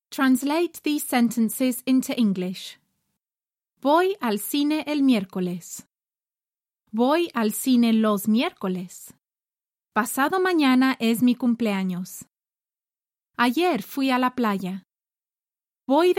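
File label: Spanish Latin American female E-learning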